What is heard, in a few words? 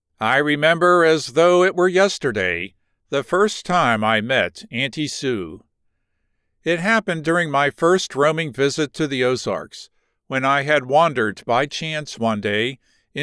Fict 1st person narrative